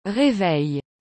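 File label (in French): "reveil", French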